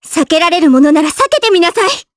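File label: voice Skill, voices heroes, Japanese; Kirze; kings raid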